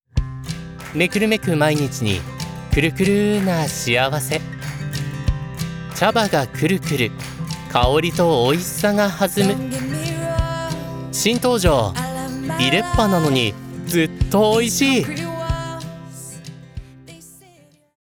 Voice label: commercial